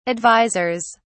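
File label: "advisors", British English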